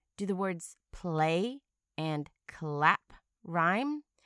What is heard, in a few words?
play clap